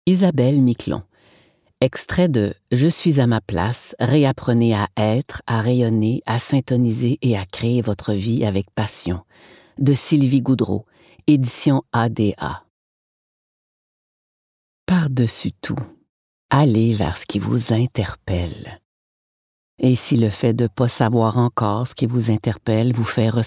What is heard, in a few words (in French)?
livre audio; Je suis a ma place